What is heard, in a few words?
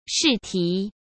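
"试题", Mandarin Chinese; chinese-flashcards; hsk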